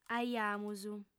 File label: "aiamus", audiofem